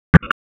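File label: Raleigh Police North District Tactical, p25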